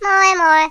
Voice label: "bye bye", Finnish; Worms Armageddon